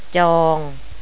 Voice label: "jong", Thai